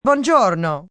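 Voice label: Hello Italian female; Greetings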